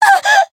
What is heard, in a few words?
Voice damaged; millecha